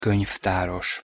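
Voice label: "konyvtaros", Hungarian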